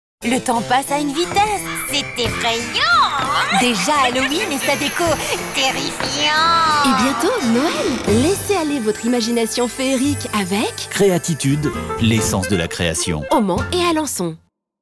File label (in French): Pub radio; Sorciere; CREATTITUDE